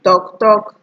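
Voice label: "talk talk", Nigerian Pidgin, female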